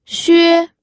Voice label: "xue1", pinyin